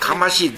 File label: "kamasu", minamiyamagata